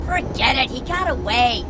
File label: grunt; h3dialogue; staten